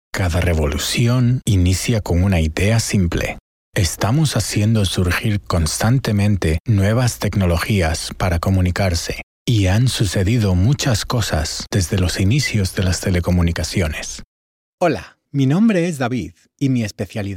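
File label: Spanish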